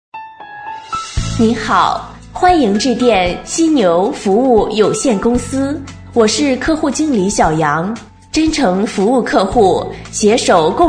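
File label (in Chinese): 女彩铃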